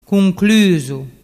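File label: "cunclusu", Vernazzese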